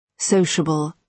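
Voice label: "sociable", British English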